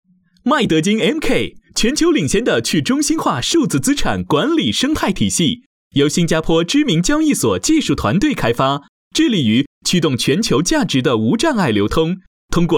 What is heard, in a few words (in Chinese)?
年轻活力金融广告